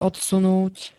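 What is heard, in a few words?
"odsunúť", Slovak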